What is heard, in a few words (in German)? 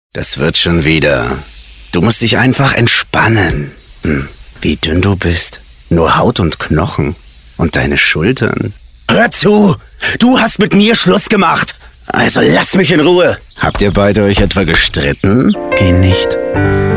Animation tief cool selbstsicher maennlich hart warm sonor; Yosuke